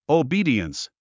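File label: "obedience", English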